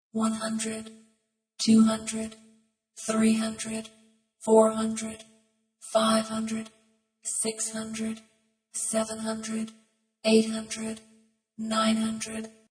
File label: Computer voice, counting numbers from 100-900, speaking; human voice; Computers; VoiceBox